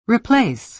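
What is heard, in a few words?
"replace", American English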